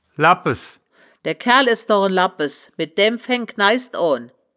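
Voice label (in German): "labpes", wittlicher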